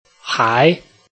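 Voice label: "hai", Chinese, tone 2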